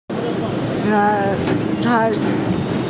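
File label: "yang2tai2", Mandarin Chinese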